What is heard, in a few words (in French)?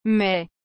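"mai", French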